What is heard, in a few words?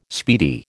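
"speedy", American English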